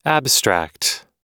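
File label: "abstract", American English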